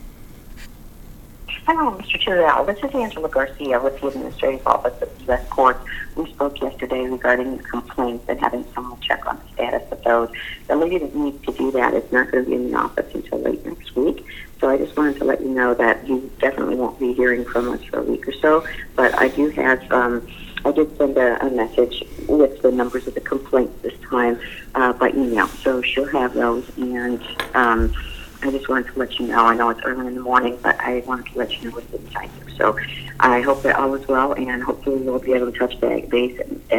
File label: Phone Call